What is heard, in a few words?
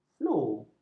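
"flu", Malay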